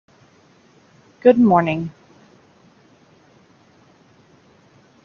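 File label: pronunciation English "good morning"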